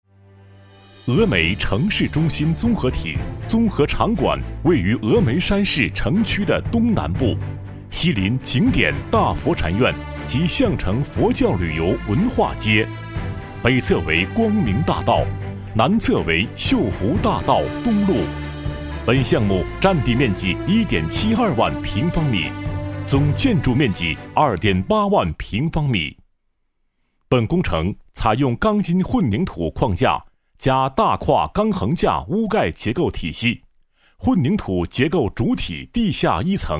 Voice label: 男 峨眉综合场馆（浑厚力度正式）

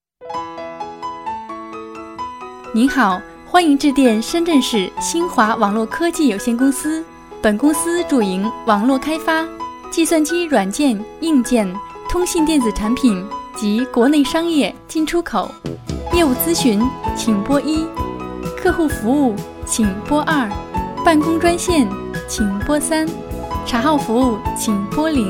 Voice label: 女; 星华网络科技公司

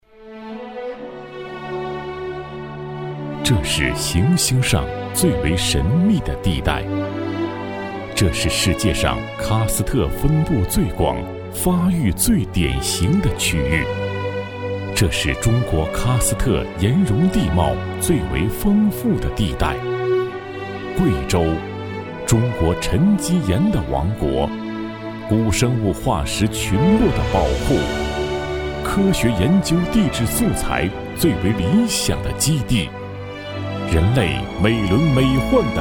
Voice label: 男 贵州（叙述感情）

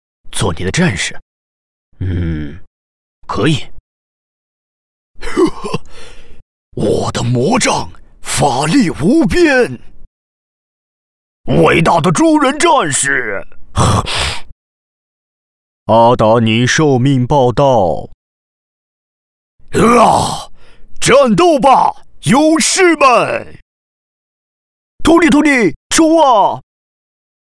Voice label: 男 游戏样音

